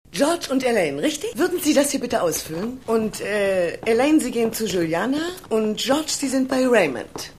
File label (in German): Empfangsdame, Stimmproben; Seinfeld